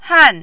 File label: "han4", Mandarin